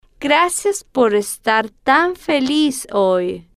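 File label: gracias Por Estar Tan Feliz Hoy, Spanish, female, slow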